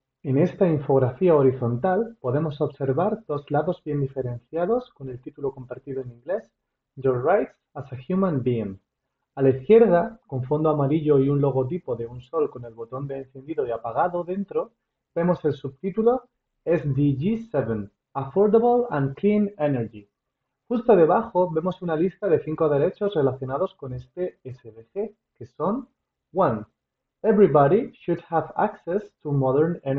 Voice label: Audiodescripcion; derechos

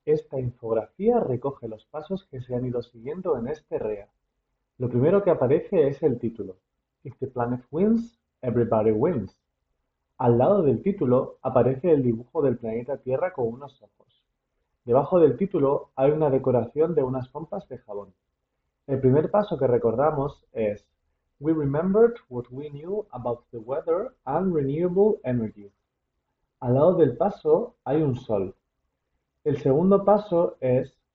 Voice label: Audiodescripcion; infografia

